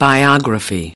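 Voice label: "biography", US English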